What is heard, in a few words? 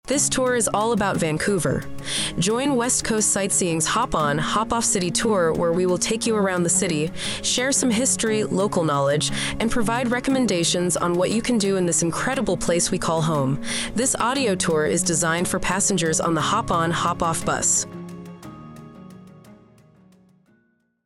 English